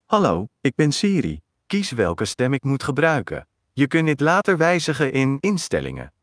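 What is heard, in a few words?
Dutch, Netherlands; iOS 26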